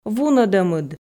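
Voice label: "вунӧдӧмыд", Komi, female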